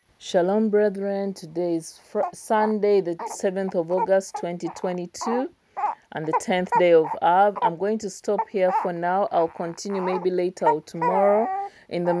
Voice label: read lamentations eikha pray